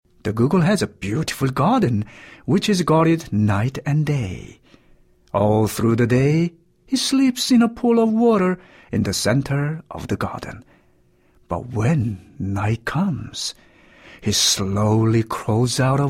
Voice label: korean accented english